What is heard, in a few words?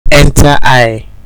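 "enter eye", Nigerian Pidgin, male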